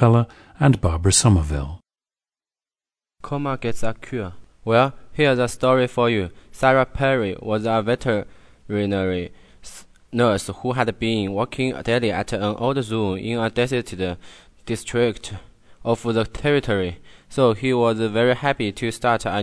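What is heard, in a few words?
jiangsu, dialects of English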